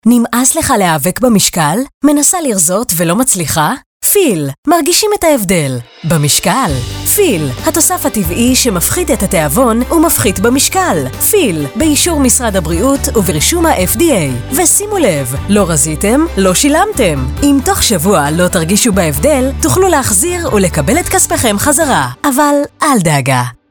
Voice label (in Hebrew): Hebrew commercial